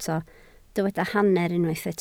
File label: "hanner", Welsh